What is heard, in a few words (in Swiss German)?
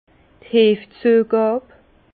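"heefzuegab", Alsace Bas Rhin; levurage; vin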